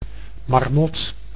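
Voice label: "marmot", Dutch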